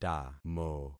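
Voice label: "tamo", concatenated syllables; Affixing experiment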